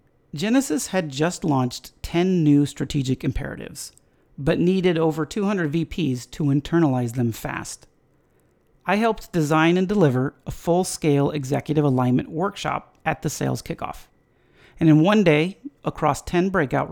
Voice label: voicetrack